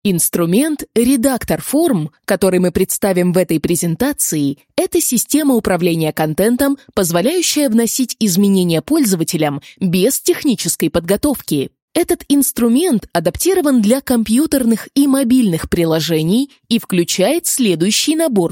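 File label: Russian Corporate; GoLocalise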